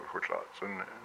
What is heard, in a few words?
"my hip", hupa